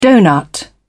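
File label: "donut", British English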